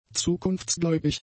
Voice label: "zukunftsgläubig", German; adjectives

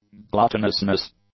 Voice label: "gluttonousness", English

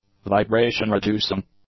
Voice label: "vibration-reducing", English; adjectives

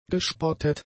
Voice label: "gespottet", German; adjectives

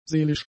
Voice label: "seelisch", German; adjectives

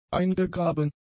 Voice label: "eingegraben", German; adjectives

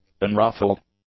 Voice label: "unruffled", English; adjectives

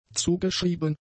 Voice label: "zugeschrieben", German; adjectives

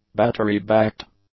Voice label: "battery-backed", English; adjectives

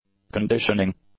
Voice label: "conditioning", English; adjectives

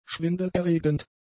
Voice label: "schwindelerregend", German; adjectives

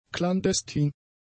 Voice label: "klandestin", German; adjectives

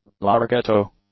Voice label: "larghetto", english; adjectives

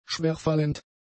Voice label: "schwerfallend", German; adjectives

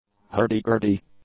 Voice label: "Hurdy-gurdy", English; nouns

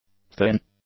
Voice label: "thin", English; adjectives

